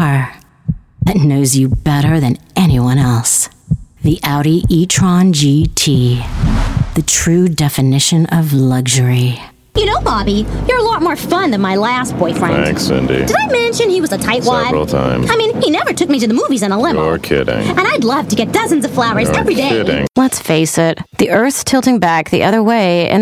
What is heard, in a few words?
commercials, VO DEMOS - Women